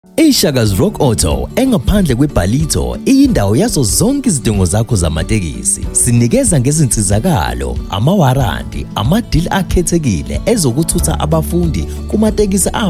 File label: ISIZULU; Shakas Rock Auto